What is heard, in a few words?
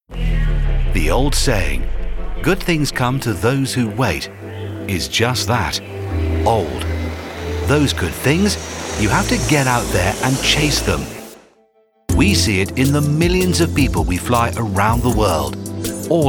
english british promos